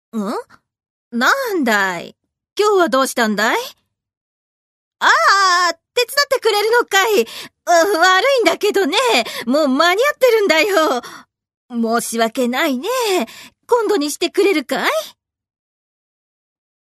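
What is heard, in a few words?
narration female power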